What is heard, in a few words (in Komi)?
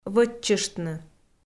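"вӧччыштны", Komi, female; audio dict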